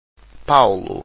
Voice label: "paulo", Portuguese, diphthongs